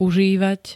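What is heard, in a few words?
"užívať", Slovak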